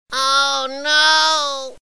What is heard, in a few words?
oh no funny ringtone